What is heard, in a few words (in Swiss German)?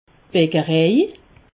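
"beckerej", Alsatian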